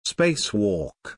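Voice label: "spacewalk", English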